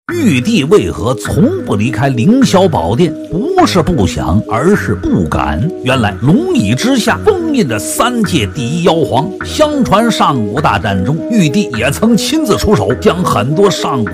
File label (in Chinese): 男 游戏配音